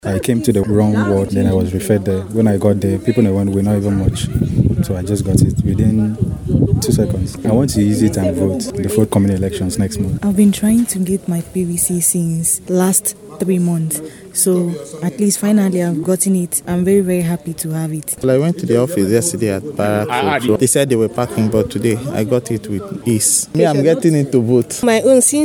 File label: vox pop